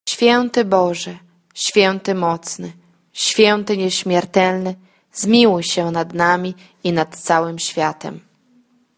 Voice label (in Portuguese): deus santo normal, polaco; divina misericordia